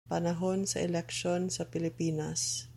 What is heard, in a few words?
Cebuano